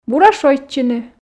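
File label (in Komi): бура шойччыны, Komi, female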